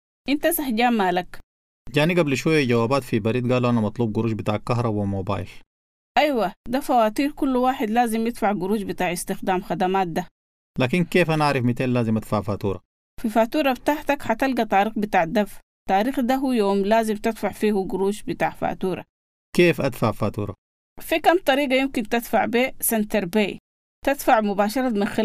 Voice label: paying bills sudanese